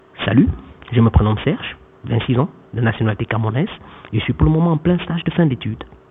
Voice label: FRENCH G711